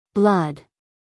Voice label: "blood", us female; everyone-can-use-english